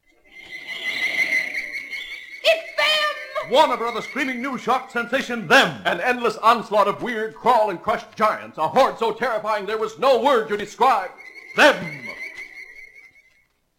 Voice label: Radio Spot; Granny Creech's radio spot crypt